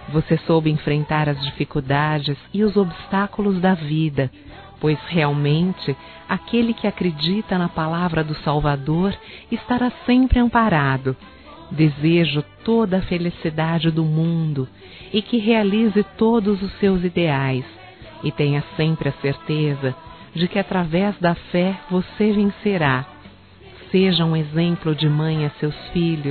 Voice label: Filha Feminino, Telemensagens, Dia das Mães; Telemensagens 2017

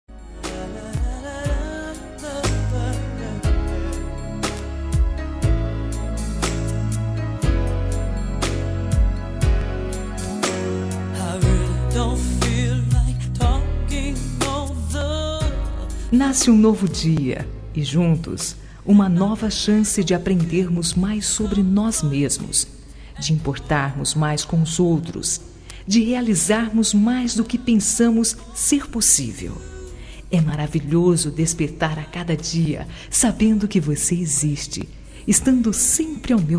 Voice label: ROM DECLARACAO FEM; Telemensagens; Declaração de amor; Telemensagens 2017

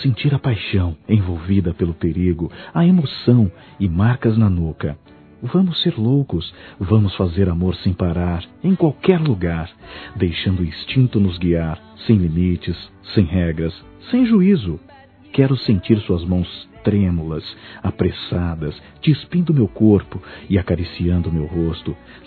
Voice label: NOSSAS LOUCURAS (M), Telemensagens Sensuais masc

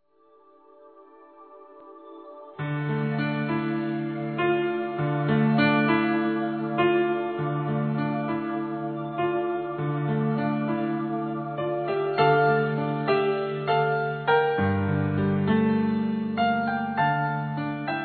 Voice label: Natal e Ano Novo Esposa Masculino; Telemensagens; Misto

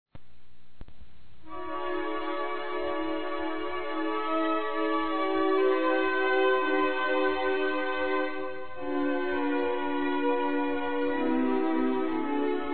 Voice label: Verdadeira Amizade Masculino; Telemensagens

